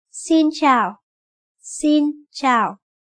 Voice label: Hello in Vietnam; Multiculturalism